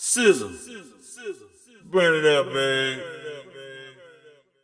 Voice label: "sizzle burn it up man", male; VOCALS and SPOKEN WORD